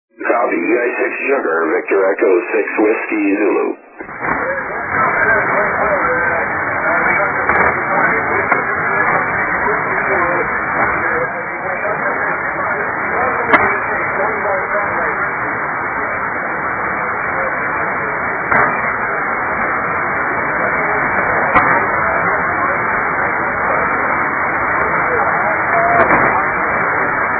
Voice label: 80m, the gang....not strong but readable.